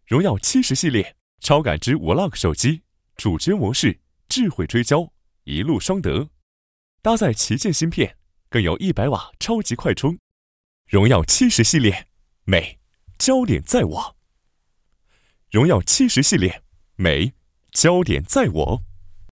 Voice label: 广告; 荣耀70

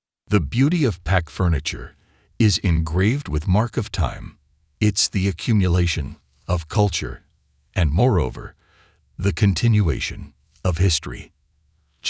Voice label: 外籍 叙述，沉稳

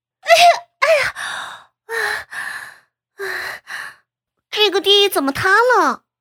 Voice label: 角色 古装戏少女音

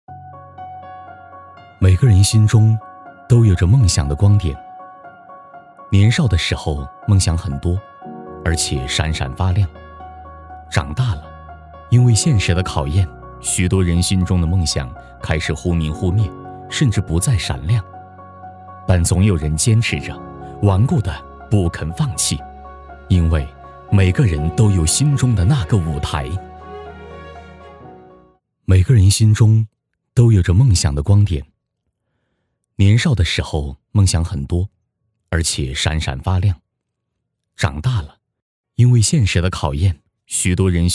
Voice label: 旁白 每个人心中都有着梦想的光点

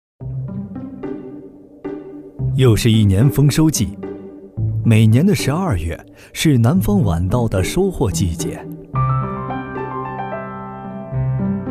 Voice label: 纪录片; 舌尖

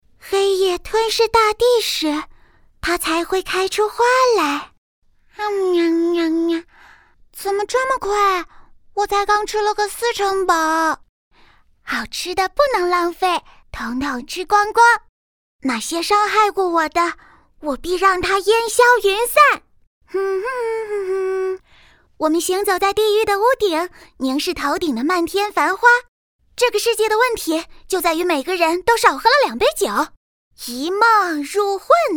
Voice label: 角色 游戏动漫可爱萝莉元气类

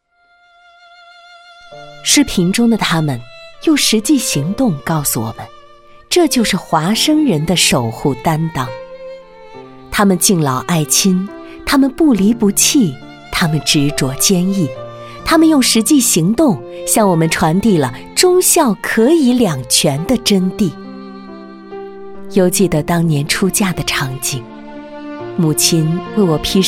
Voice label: 专题 端庄抒情深情人物专题

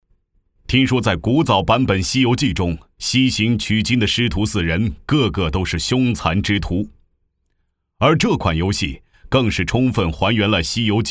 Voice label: 角色 游戏 正式; 神挡杀神

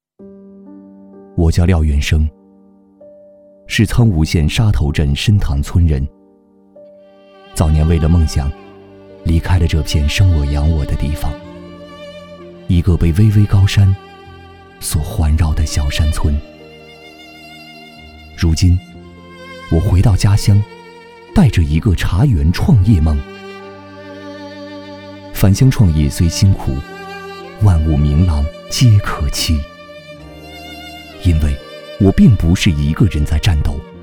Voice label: 走心讲述; 茶园

